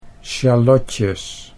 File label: "sjalotjes", Dutch; 'shallots'